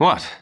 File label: Male; baldurs1